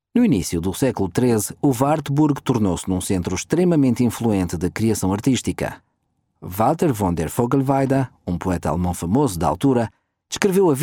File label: audioguide; Wartburg